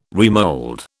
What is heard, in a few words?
"remold", British